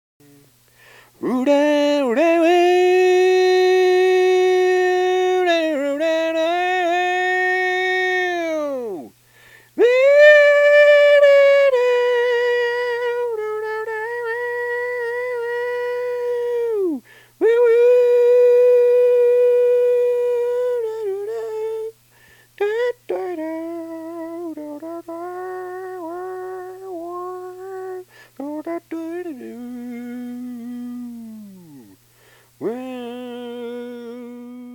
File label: raw voice solo